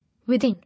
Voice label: "Within", English